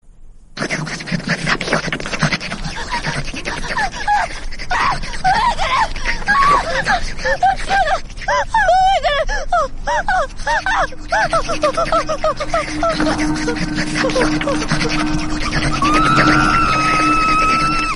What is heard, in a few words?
whisper scene; gonjiam